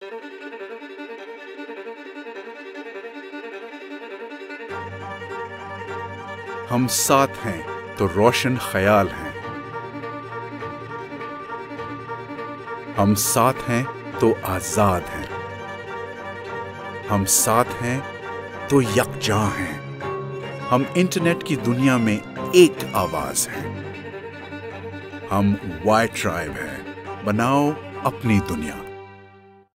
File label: Voice over; WiTribe